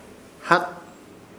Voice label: "Hat", Ishir Chamacoco; listo